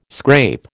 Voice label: "scrape", American